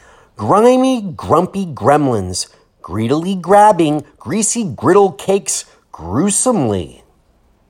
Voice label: GR Twister